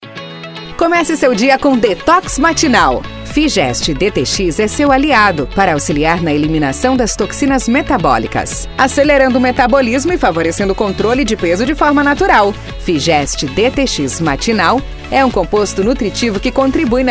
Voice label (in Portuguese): Spot Voz Feminina; Figest DTX